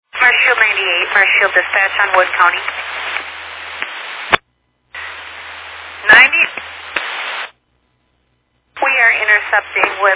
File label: Fire Dispatch